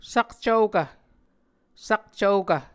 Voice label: "sakcvokv", Muscogee